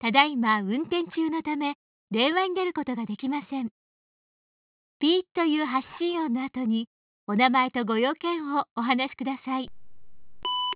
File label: Japanese Answer; LG L704i; VoiceMemo